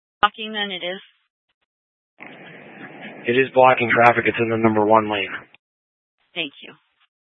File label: Fire Departments, scanner